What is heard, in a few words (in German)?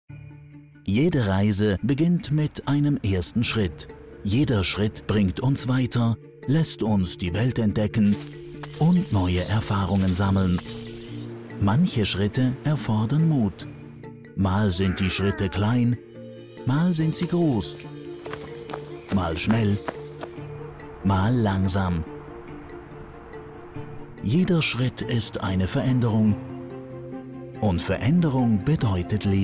corporatefilm; Post – Corporatefilm